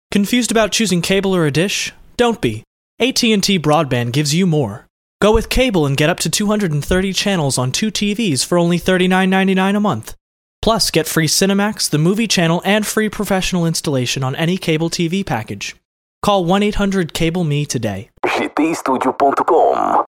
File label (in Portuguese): Inglês Masculino USA